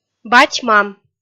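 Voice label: "бать-мам", Komi-Zyrian, female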